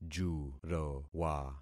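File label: "jurowa", concatenated syllables; Affixing experiment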